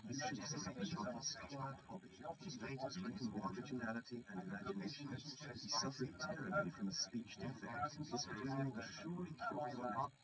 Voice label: male srec 130ms 20cm sim; SiSEC11; underdetermined